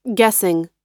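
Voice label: "guessing", American English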